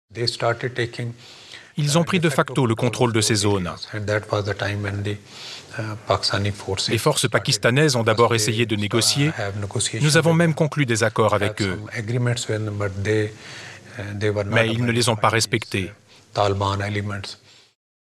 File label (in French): voix off; france5; fanatisme